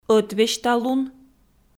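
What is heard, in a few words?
"ӧтвесьталун", Komi, female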